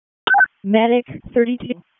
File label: EMS ALERT, p25; wake